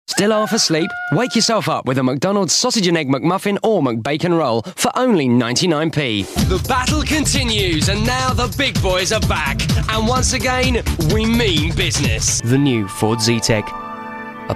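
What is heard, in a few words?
UK male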